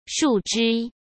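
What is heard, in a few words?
"树枝" Mandarin Chinese; chinese flashcards; hsk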